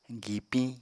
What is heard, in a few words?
"gipiñ", Apatani